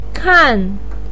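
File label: "kan" fourth tone, chinese, voice pinyin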